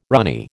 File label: "runny", American